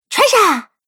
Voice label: Cartoon Girl Treasure, Cartoon Voice Sound Effects